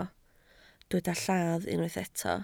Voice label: "lladd", Welsh